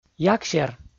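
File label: "ягсер", Komi, male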